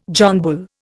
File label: "John Bull", Indian